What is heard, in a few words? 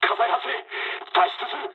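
on fire mood low, speech japanese; War Thunder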